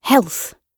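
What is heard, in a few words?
"health", British English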